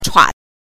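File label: "chua", Mandarin Chinese pinyin, neutral tone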